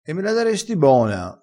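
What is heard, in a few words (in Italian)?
"e me la", dialettale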